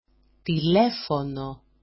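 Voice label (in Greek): "tilefono", Greek; τηλέφωνo; telephone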